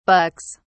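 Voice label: "bucks", English, British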